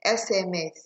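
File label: "sms", Nigerian Pidgin, female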